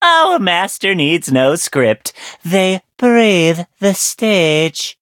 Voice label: levelup, en; cookie run